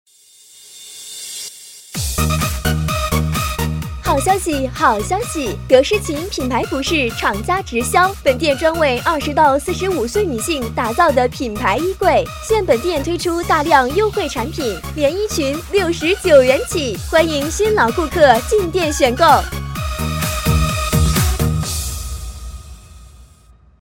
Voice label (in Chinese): 女 广告 活动促销